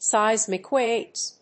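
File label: "seismic waves", English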